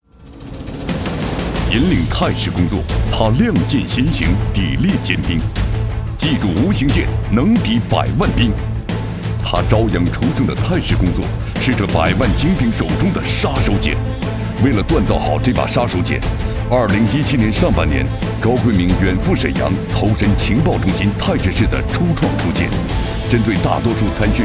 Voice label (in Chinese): 专题 政府 激情